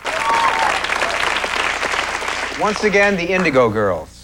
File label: announcer